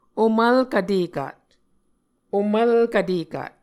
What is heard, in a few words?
"omvlk vtekat", Muscogee